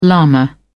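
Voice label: "lama", British English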